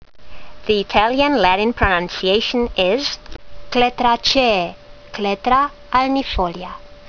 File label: latin; Clethra alnifolia